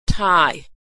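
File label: "Thai", American English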